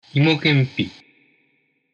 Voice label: "imokenpi", Japanese